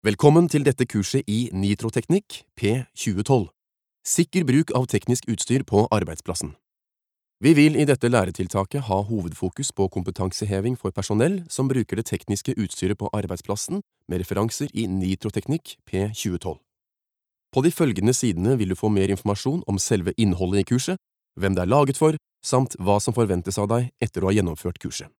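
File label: Norwegian elearning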